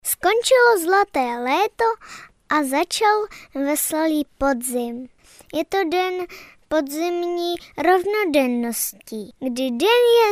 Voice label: czech child male

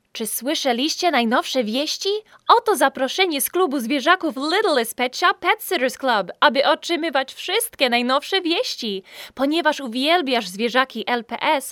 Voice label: polish female

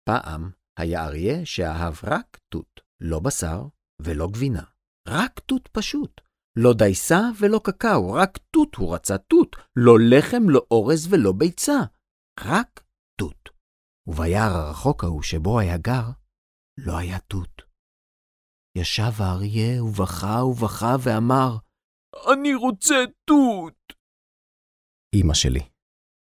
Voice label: hebrew male

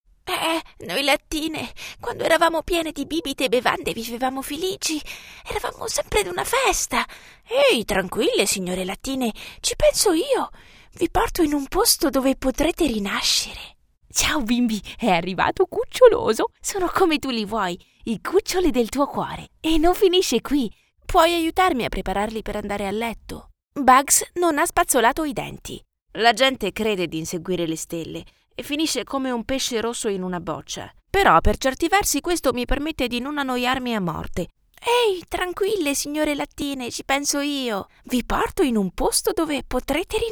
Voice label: italian girl

